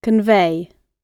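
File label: convey, uk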